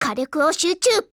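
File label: ATTACK, Japanese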